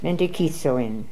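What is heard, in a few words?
"Mentekithzoin", pronunciations; Fourth Way; Beelzebub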